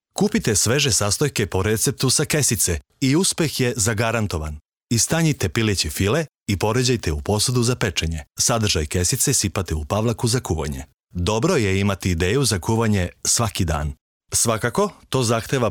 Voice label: male serbian voice over talent narrative